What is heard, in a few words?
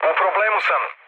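Serbian aircraft damaged mood low, speech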